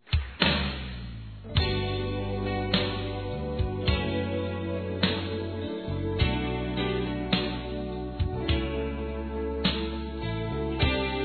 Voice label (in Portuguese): Aniversário Irmã Evangelico Masculino